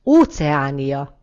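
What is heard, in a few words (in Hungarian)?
"oceania", Hungarian; gcompris; geography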